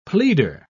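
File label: "pleader", British English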